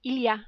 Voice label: il y a, French

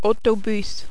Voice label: "autobus", French

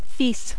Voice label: "fils", French

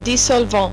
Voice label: "dissolvant", French

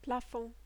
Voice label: "plafond", French

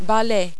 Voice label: "balai", French

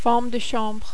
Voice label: "femme de chambre", French

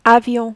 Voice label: "avion", French

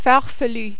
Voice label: "farfelu", French

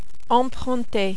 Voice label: "emprunter", French

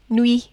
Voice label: "nuit", French

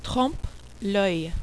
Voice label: "trompe l oeil", French

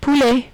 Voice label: "poulet", French

